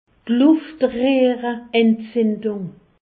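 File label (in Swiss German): "luftrehrentzindung", Alsatian; laryngite; medecine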